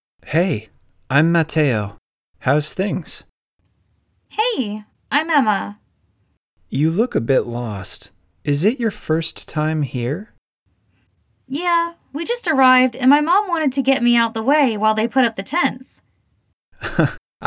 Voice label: Conversation; Real Heroes 4